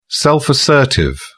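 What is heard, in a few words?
"self-assertive", British English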